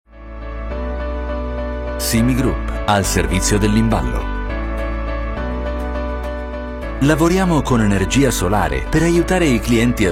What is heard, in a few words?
male italian corporate; Devine Voices